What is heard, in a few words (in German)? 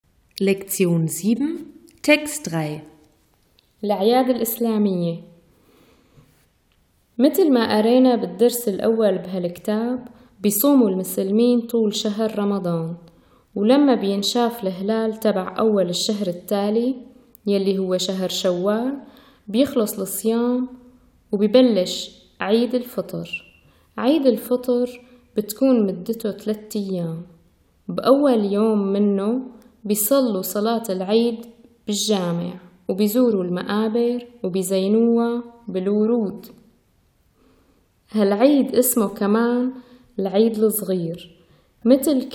islamische Feste, syrisch-arabischer Dialekt; lə-ʕyād ǝl-ʔǝslāmiyye